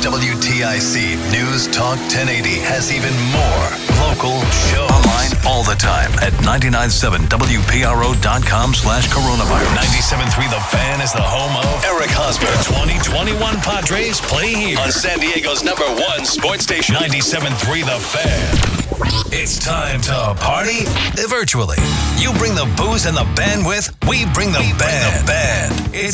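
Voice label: Radio Imaging voice over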